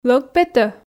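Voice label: Komi sentences, female; лӧг петӧ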